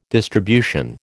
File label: "distribution", American